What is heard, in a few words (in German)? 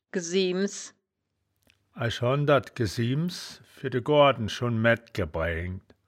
"gesaeaems", wittlich; das wittlicher woerterbuch; woerterbuch vertonung